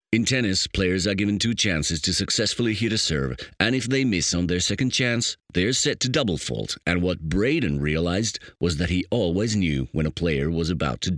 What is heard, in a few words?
male finnish voice over english